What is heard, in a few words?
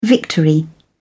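"victory", British English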